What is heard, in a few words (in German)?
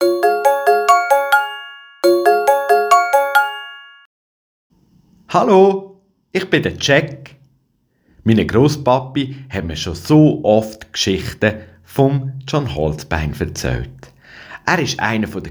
Einleitung mit Jingle